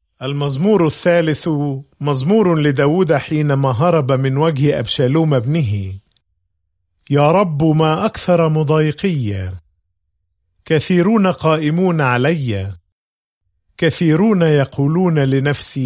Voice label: Psalm, arabic bible; psalms